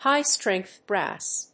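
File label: "HIGH STRENGTH BRASS", English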